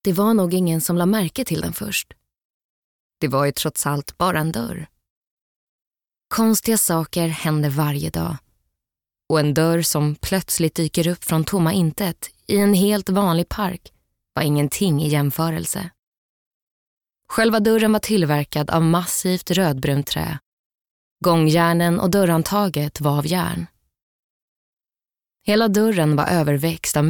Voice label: SWE Audiobook f